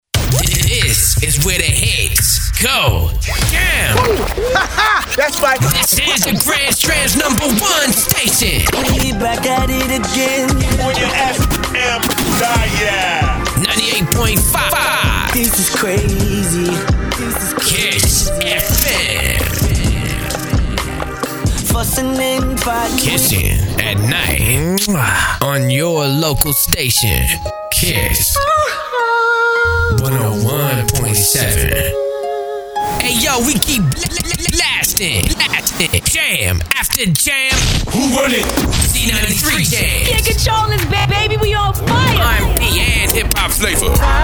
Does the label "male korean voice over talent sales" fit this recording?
No